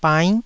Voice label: "bainn9", taiwanese

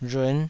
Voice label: "rhun5", taiwanese

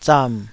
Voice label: "zam3", taiwanese Syllables

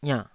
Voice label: "iannh3", Taiwanese syllable

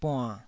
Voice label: "buann3", taiwanese Syllables

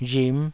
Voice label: "rhim5", taiwanese

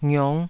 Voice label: "ngiong5", taiwanese syllables

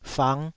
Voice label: "fang5", Taiwanese

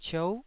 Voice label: "ciou9", Taiwanese

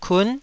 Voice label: "kun9", Taiwanese syllable

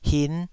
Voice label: "hin5", taiwanese

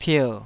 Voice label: "pier3", taiwanese

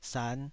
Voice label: "san5", taiwanese

